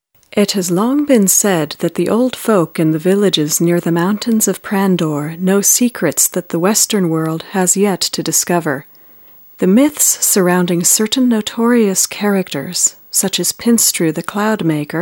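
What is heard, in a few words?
F EN documentary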